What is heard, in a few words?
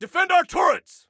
Defend Turrets, Soldier Male